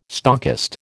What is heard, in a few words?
"stockist", American